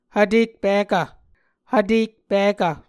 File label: "hvtekpikv", Muscogee; A Dictionary of Creek/Muskogee; SIL International; Onvkv Ohhvyayices